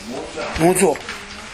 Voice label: "mozo", minamiyamagata